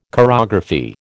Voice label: "chorography", American